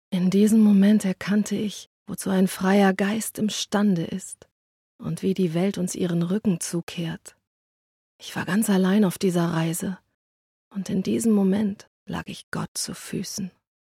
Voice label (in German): Gebrochene Stimme